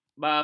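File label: "bapa", Malay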